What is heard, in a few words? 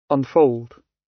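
"unfold" British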